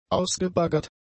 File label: "ausgebaggert", German; adjectives